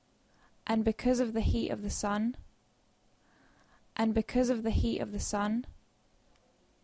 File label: Dictation; phonology